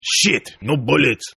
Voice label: Toughguy Bullets English, Tough Hispanic Male, Yelling